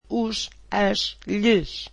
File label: portuguese them